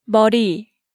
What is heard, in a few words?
"Meori", Hangeul sounds; DigitalSoju